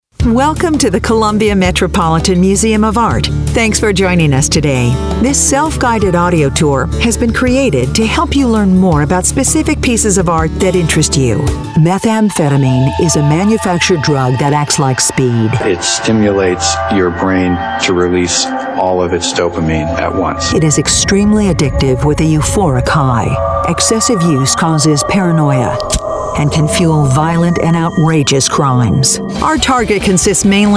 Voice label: narr, female voice